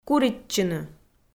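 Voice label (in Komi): "куритчыны", Komi-Zyrian, female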